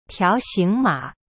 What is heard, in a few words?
"条形码", Chinese; tiao xing ma; cyberchinese